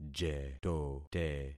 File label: "jetote", concat syllables; Affixing experiment